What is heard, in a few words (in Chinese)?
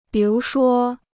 "比如说", Chinese